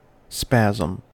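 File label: "spasm", US English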